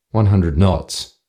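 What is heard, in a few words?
100 kts, Australian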